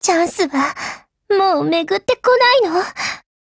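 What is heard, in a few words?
BREAK, Japanese; GF Alarm; AR70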